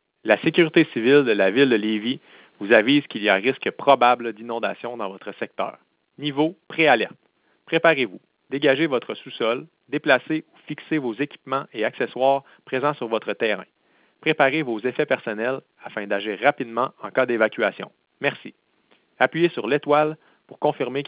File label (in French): telephone pre alerte francais; riviere beaurivage